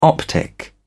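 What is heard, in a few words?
"optic", British English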